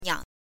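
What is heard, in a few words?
"niang", Mandarin Chinese, neutral tone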